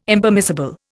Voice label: "Impermissible", Indian